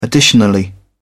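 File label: "additionally", English, British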